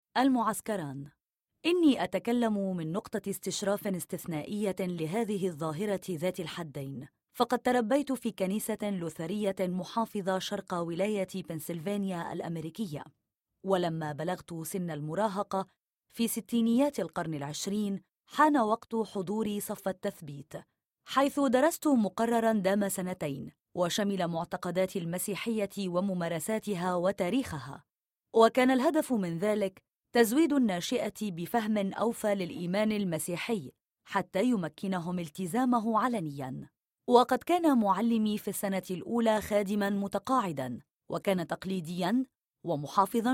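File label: arabic syrian female narration; voice demos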